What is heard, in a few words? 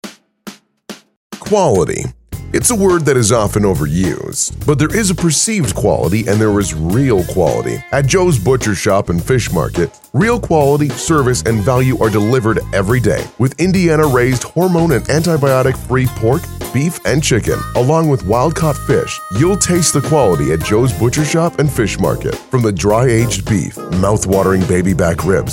Radio Spot